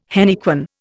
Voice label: "henequen", Indian

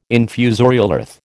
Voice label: "infusorial earth", American

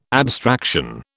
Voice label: "abstraction", British

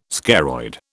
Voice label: "scaroid", British

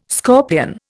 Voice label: "scorpion", Indian

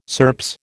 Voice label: "SERPS", American

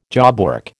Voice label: "jobwork", American

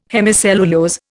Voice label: "hemicellulose", Indian

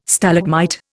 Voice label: "Stalagmite", Indian

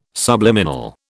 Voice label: "subliminal", British

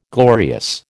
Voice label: "glorious", American

